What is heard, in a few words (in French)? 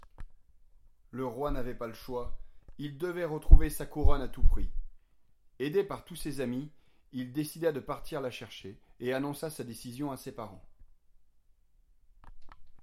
narration